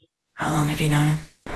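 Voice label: Voice Acting Spanish Female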